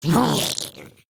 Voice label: Pain, Grineer Ghoul; Warframe; Grineer Ghoul Expired; Battle Barks